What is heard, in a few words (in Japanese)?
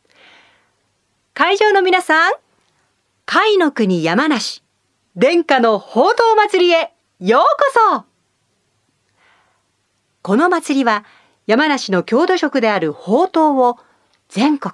お祭り MC